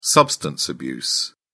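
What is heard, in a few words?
"substance abuse", British English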